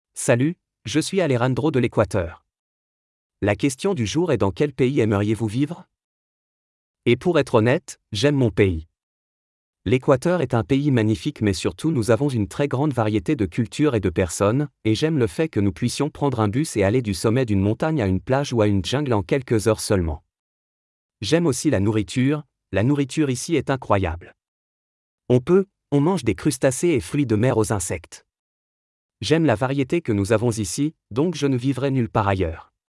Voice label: Audiobook Voice